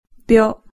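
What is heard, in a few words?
"byo", Japanese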